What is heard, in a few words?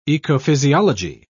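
"ecophysiology", British English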